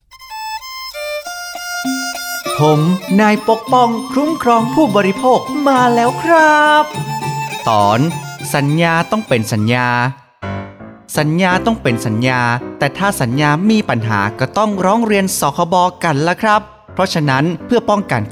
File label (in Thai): สปอตวิทยุ สคบ. ภาคเหนือ